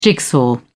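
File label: "jigsaw", British English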